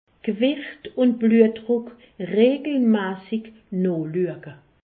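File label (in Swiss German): "bluetdruck", Alsatian; medecine; surveiller son poids et sa tension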